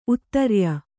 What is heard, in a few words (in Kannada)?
"ಉತ್ತರಾಯಿ", Kannada